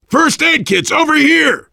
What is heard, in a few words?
spot first aid, voice, biker; Left 4 Dead 2; survivor